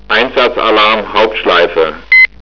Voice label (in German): Piepser Alarmdurchsage